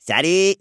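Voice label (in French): "salut", French, gnome, male; PhoenixCraft-1.16.5; PhoenixNPCPack; minecraft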